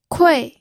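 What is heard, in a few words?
"kui", fourth tone, pinyin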